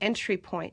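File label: "entry poiiQ", American English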